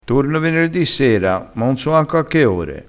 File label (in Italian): "ore", crespinese